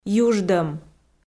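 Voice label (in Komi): "юждӧм", Komi, female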